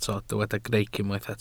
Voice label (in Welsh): "greic", Welsh